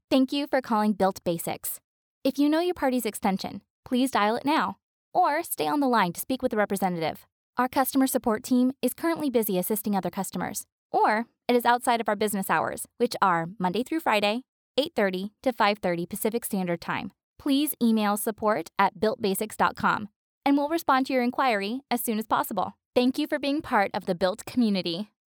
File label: IVR Conversational